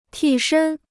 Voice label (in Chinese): "替身", Chinese